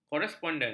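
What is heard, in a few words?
"koresponden", Malay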